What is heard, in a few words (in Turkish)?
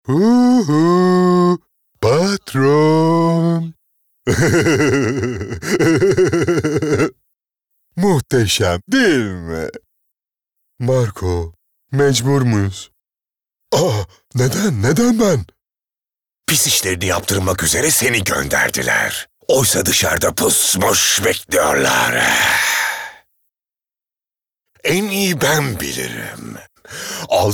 animasyon